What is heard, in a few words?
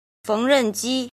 "jin", Chinese